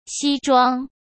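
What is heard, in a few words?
"西装", Mandarin Chinese; hsk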